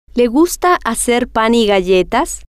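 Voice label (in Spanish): le Gusta Hacer Pan Galletas, spanish, female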